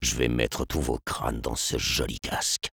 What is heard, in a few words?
sniper taunts, fr